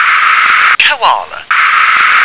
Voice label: "koala", English

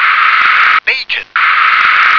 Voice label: "bacon", English

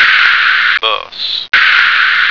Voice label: "bus", American

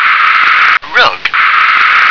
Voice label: "rug", English

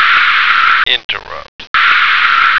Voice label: "interrupt", American

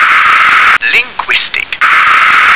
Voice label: "linguist", English

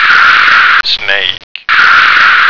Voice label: "snake", American

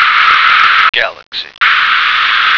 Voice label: "galaxy", American

